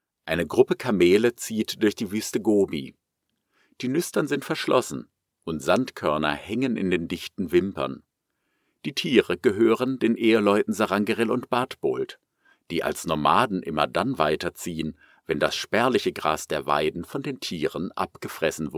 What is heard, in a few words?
Documentary